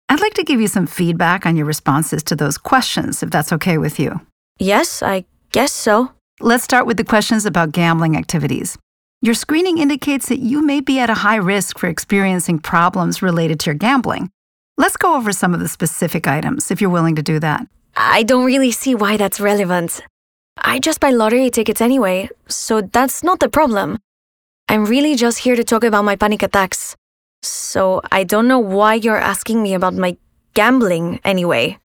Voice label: Spanish Accent; English; commercial work; Illinois Gambling Part 2